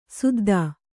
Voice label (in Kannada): "ಸುದ್ಧಾ", Kannada